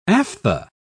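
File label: "aphtha", British English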